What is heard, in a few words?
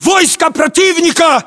threat infantry generic, english